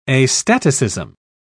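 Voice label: "astaticism", British English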